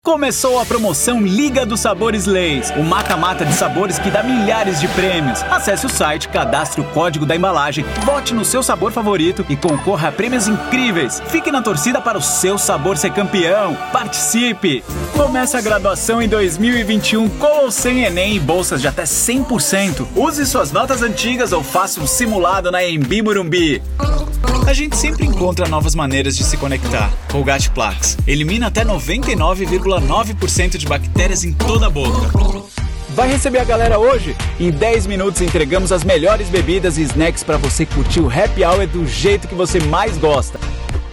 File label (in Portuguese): locs de promocoes e locs alegres